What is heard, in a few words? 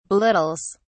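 "belittles", British English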